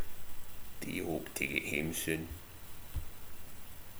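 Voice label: "tae", slang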